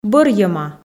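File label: "бӧрйӧма", Komi, female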